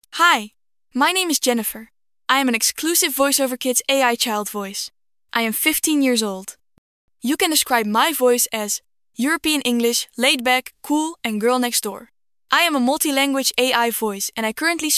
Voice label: girl15; AI Child kids voice; voiceoverkids; Premium AI Child kids voice generator